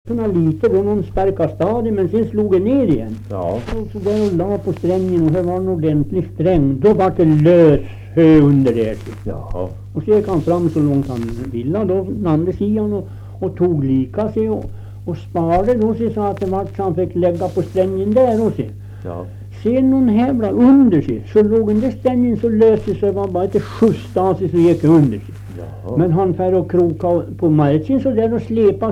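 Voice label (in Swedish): Österfärnebo Gästrikland, Svenska sagor, dialekter; Folkminnen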